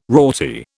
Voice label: "rorty", British